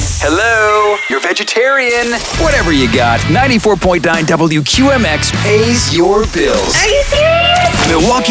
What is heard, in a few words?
radio imaging men country